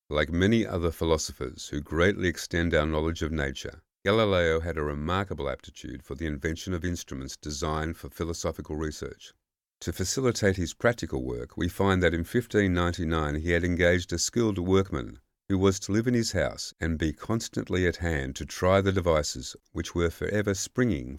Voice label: narration read

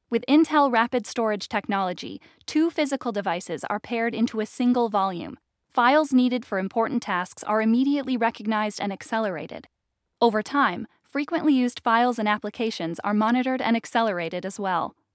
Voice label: ELearning

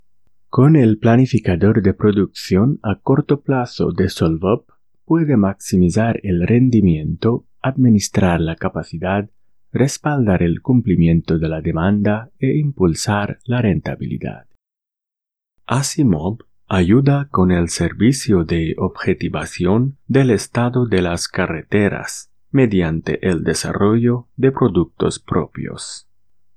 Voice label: Spanish Explainer

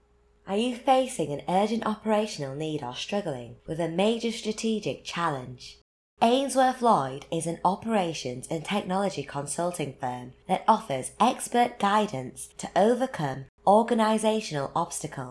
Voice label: UK

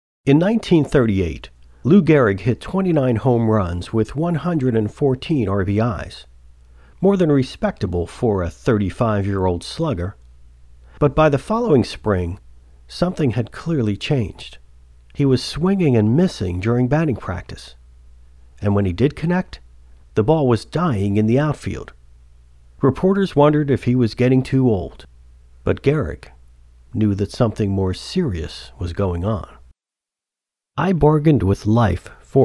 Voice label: Audiobook

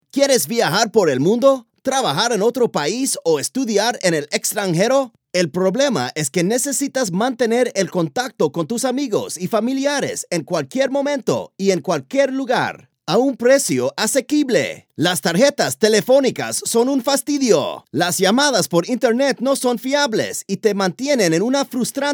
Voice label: Spanish

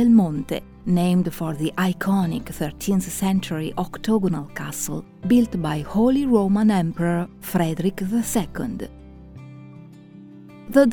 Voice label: Fine Italian Wines Videos ENG Italian Accent

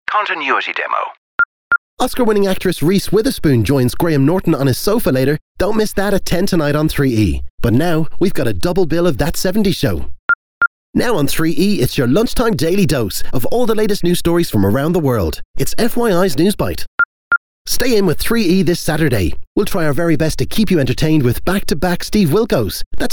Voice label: Natural Speak

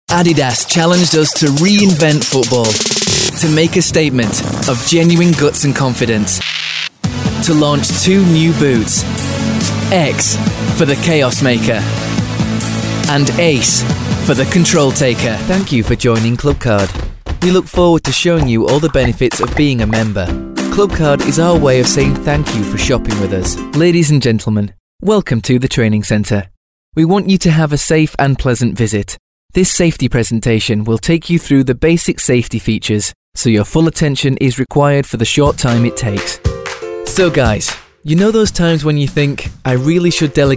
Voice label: Corporate

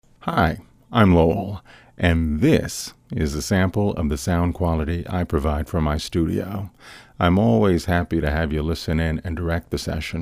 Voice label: Dry Studio Sample

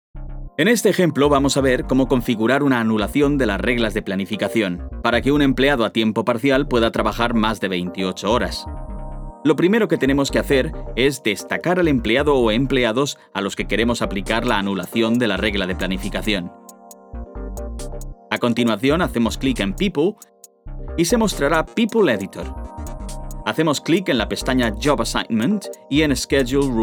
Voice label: E learning Processes

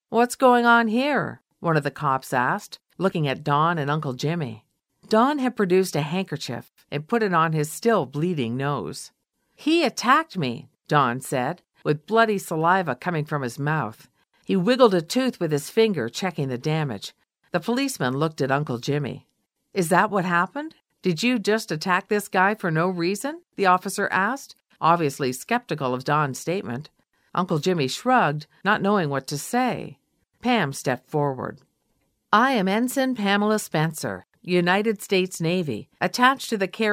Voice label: Audiobook

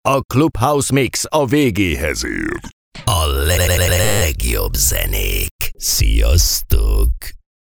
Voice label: dj sweeper

